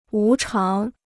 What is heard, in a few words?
"无偿", Chinese